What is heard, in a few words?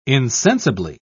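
"insensibly", British English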